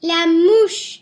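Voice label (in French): "mouche", French; Les insectes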